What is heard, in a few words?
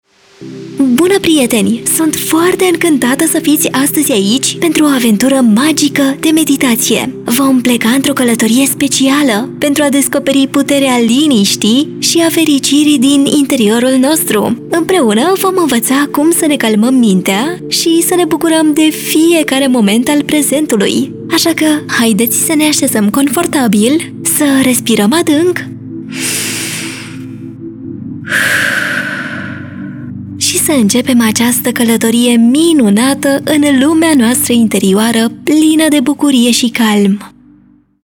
romanian animation, Mujer; Rumano; Animación